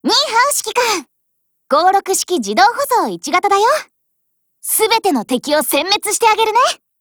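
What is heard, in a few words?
GAIN Japanese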